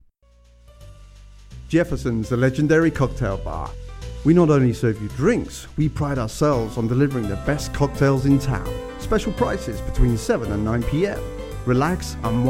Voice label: Commercial Bar Advert VO and FX, Warm Inviting New Cocktail Bar; Jeffersons Bar